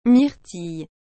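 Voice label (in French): "myrtille", French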